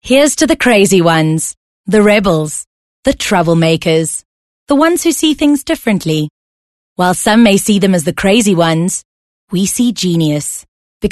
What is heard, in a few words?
English conversational